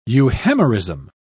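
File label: "euhemerism", British English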